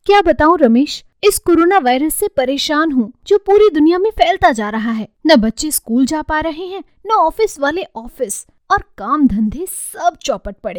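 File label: hindi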